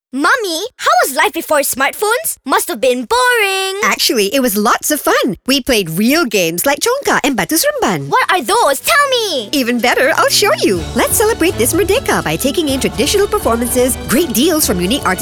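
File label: English; SEEK KEEP; MALAYSIA DAY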